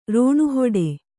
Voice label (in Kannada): "ರಣಹೊಡೆ", Kannada